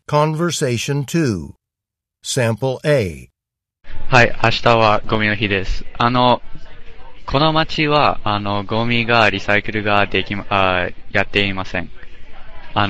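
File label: japanese conversation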